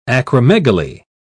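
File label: "acromegaly", British English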